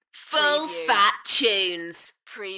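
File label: UK female, Enthusiastic, DJ vocals; Full phat tunes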